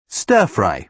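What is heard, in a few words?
"stir-fry", British English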